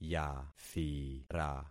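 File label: "yathira", concatenated syllables; Affixing experiment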